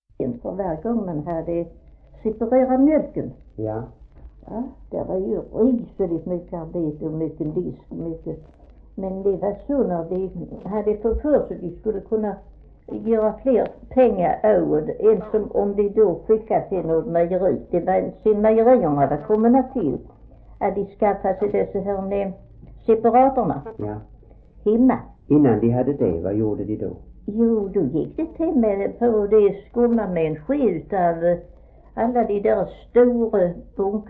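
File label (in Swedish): Fleninge Skåne, Svenska sagor dialekter; Folkminnen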